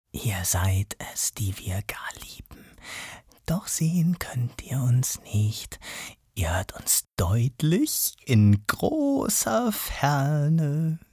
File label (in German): psycho, maenner